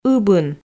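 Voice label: "ыбын", Komi, female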